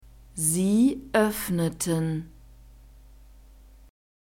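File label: "sie öffneten", German; verbs past tense regular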